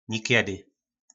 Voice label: "Nikědy", nářečí Po našimu; Někdy

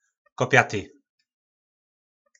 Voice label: "Kopjaty", Slovník nářečí; Vrchovatý; Kopjato łyžka mónki.

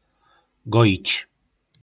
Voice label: "Gojic", nářečí Po našimu; Hojit

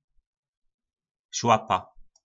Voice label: "Šłapa", nářečí Po našimu; Chodidlo

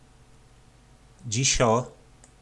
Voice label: "Dźišo", nářečí po našimu; Dnes

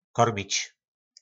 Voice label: "Kormič", Slovník nářečí; Krmit

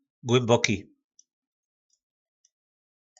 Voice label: "Głymboki", nářečí Po našimu; Hluboký

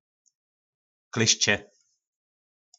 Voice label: "Klyšče", Slovník nářečí; Kleště

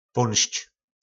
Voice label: "Pónšč", nářečí Po našimu; Odejít

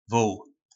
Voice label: "Vół", nářečí Po našimu; Vůl

